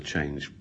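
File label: "chanTSH", Standard Southern British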